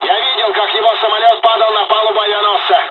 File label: dialog, dialogs russian; War Thunder